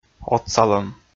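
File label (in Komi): "отсалӧм", Komi, male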